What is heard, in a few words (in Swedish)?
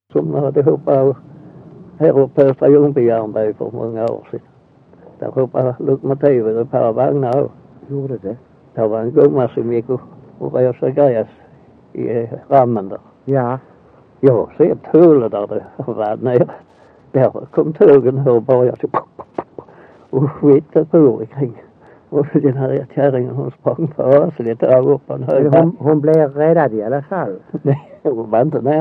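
Össjö Skåne, dialekter; Folkminnen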